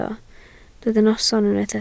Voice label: "noson", Welsh

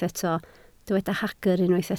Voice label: "hagr", Welsh

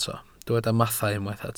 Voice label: "mathau", Welsh

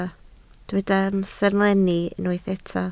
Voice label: "amserlenni", Welsh

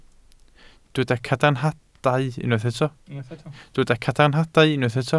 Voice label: "cadarnhadau", Welsh

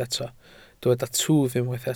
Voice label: "twf", Welsh

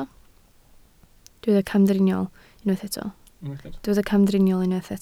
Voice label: "camdriniol", Welsh

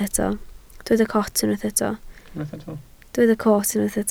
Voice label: "coot", Welsh

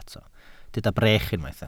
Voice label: "brech", welsh

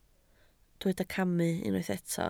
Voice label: "camu", Welsh

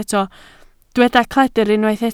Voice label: "cledr", Welsh

